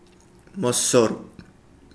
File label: thirty, Kapingamarangi